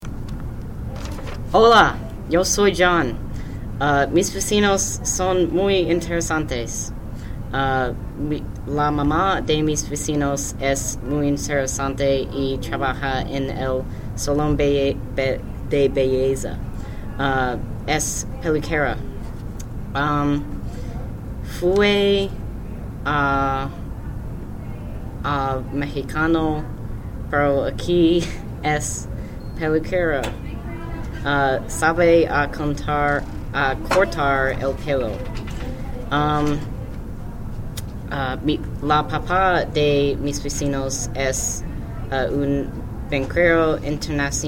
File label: spanish speaking exam